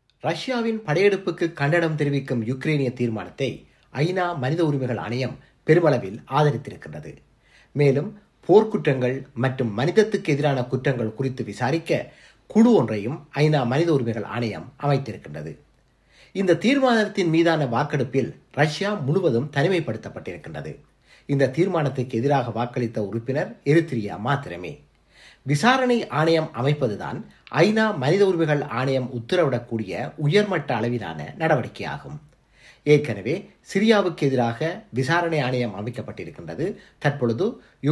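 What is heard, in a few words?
Tamil, General; GoLocalise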